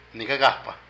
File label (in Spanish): Guardia, centinela, Zapoteco de Petapa; Vocabulary